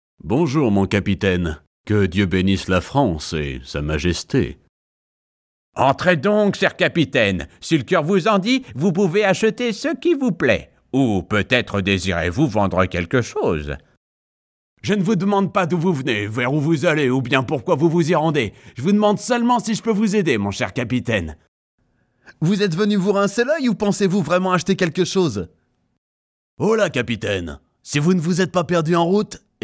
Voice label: french male voiceover videogame

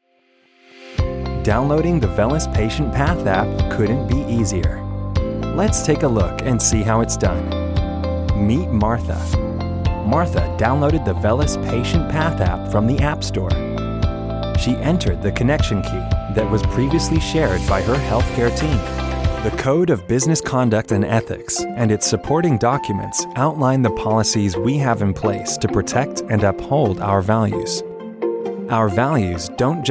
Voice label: young american corporate

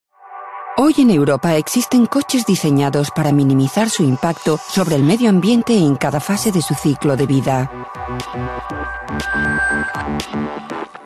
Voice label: commercial; toyota

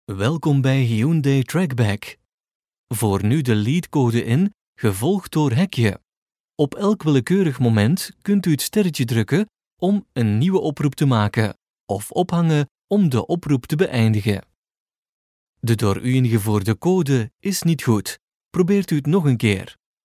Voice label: flemish male telephone ivr

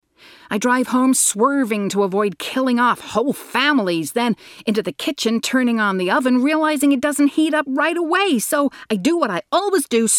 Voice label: american comedy

